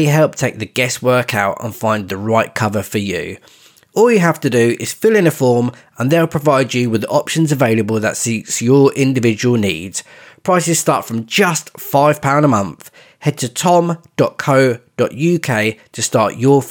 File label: Life Insurance Advert; Lads Anonymous